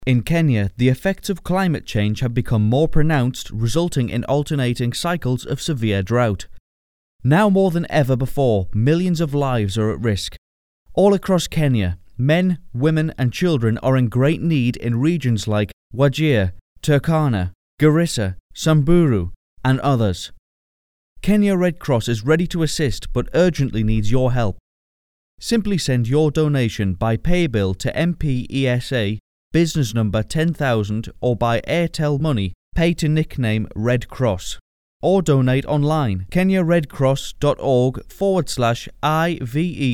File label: Narration